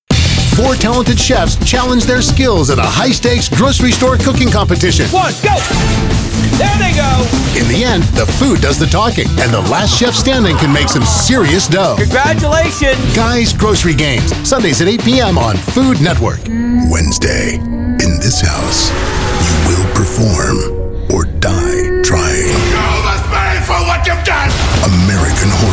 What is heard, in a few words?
Promos